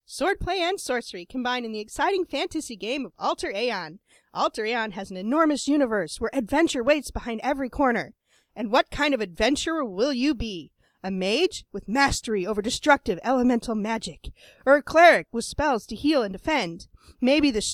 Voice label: radio ad female; alter aeon class